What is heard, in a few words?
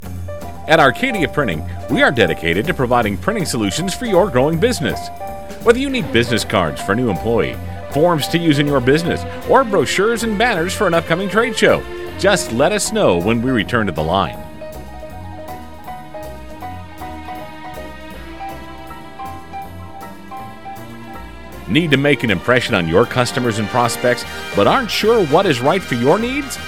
on hold